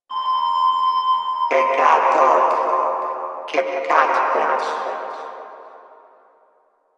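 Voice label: Announcer Female, English; Warframe; Corpus Venus Announcer; Battle Barks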